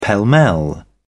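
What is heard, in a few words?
"pell-mell", English, British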